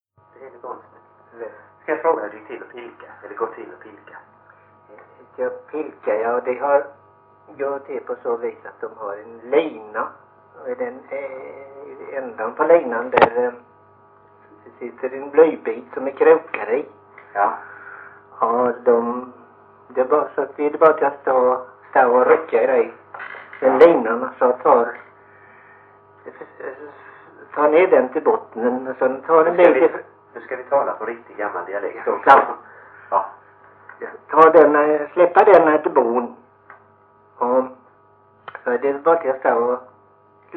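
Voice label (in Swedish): Morup Halland, Svenska sagor dialekter; Folkminnen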